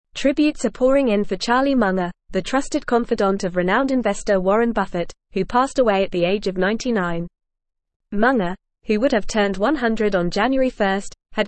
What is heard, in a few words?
English Advanced FAST Reading; English Newsroom; Charlie Munger Trusted Partner of Warren Buffett Dies